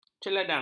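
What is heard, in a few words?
"celedang", Malay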